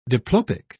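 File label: "diplopic", British English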